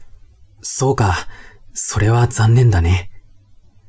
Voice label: man